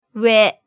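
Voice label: "we", Japanese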